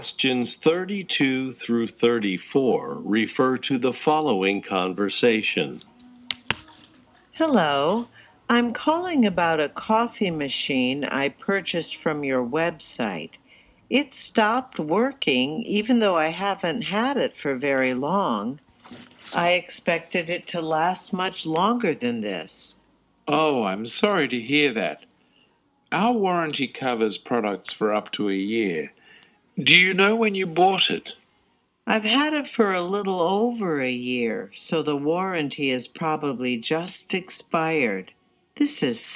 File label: slow